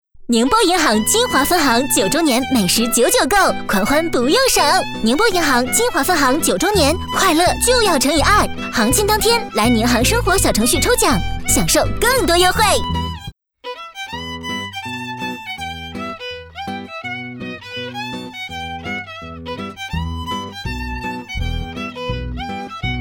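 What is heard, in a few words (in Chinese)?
B女『广告』欢快活力; 宁波银行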